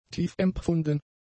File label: "tiefempfunden", German; adjectives